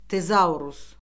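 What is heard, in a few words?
"tezaurus", Azerbaijani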